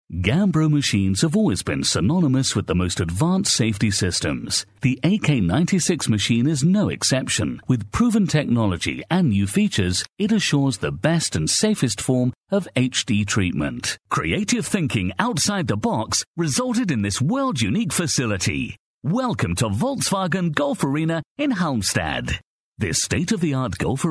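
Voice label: English British, male, narration; voice demos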